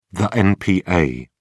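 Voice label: "npa", British English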